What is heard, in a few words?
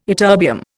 "ytterbium", Indian